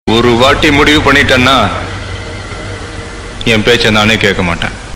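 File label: Tamil Dialogue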